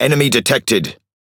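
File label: MESSAGE FOUND ENEMY SHIPS, British English; PVE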